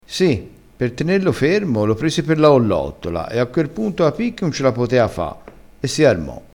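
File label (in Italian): "collottola", vocabolario dialettale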